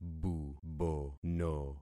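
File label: "bubono", concatenated syllables; Affixing experiment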